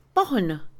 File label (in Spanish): fácil, Mixe, Mujer; INALI